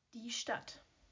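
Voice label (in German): "Stadt", German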